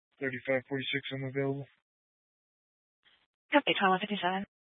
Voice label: Police Department Dispatch, scanner; Grand Junction Police Department Dispatch